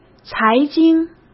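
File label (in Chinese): "财经", Mandarin Chinese; hsk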